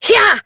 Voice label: Horse Sprint Adult; Duviri

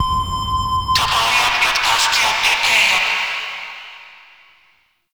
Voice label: Ship Announcer; Warframe; Ambience; Guild

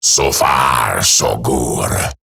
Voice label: Patrol Eximus Grineer Male; Battle Barks

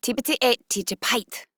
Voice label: Patrol Female; en; Modular Corpus; Battle Barks

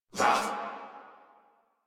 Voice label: threatened crewmen; Warframe; Corpus Crewmen; Crewman C

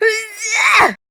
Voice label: Death, Grineer Queens Guards, Battle Barks, en

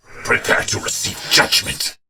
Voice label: Attack, en; Warframe; Battle; Dax; DaxKnight

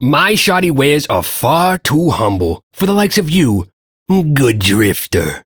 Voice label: Ambient Shopkeeper, Dialog; Duviri

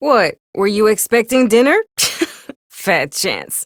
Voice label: Ambient Barks, en; Warframe; Mall Romance; Lettie

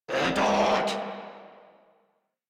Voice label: Surprised Crewmen, en

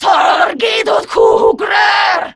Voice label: Player Spotted, Grineer Female; Warframe; Battle Barks

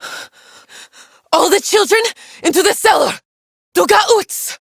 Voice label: Villagers Fem en

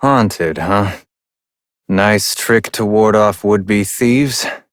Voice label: Adult Op, en; New War; Ascend Tower

